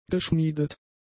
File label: "geschmiedet", German; adjectives